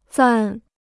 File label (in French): "暂", chinois